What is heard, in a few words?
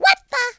"what the", Cowgirl; speech